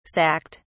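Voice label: "sacked", English, British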